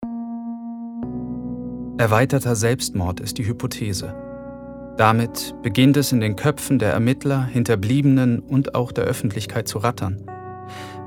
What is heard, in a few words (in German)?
Voice Over Podcast